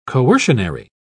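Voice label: "coercionary", English, British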